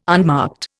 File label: "unmarked", Indian